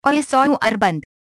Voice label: Voice Over Off, hi